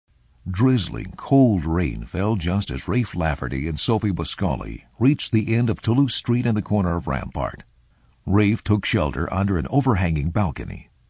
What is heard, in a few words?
audio books